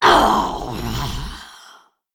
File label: Female Kvaldir Death